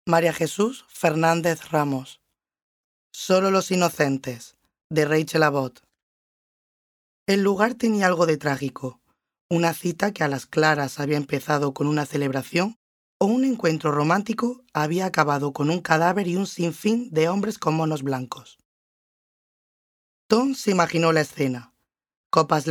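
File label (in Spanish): Audiolibro, voces en espanol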